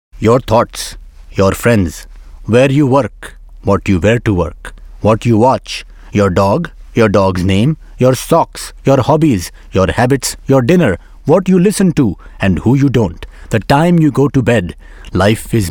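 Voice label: Indian Accent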